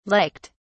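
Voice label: "liked", British English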